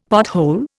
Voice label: "pothole", Indian; Dictionary

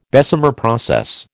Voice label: "Bessemer process", American

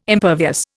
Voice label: "impervious", Indian

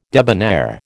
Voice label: "debonair", American

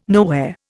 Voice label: "nowhere", Indian

